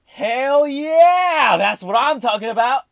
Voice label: Hell Yeah Shout